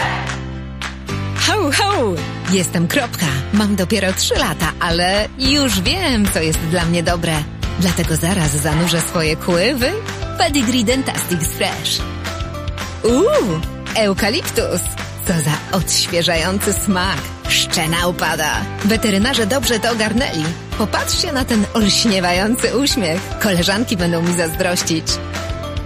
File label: Polish